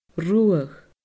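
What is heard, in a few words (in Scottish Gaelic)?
"rudhadh", Scottish Gaelic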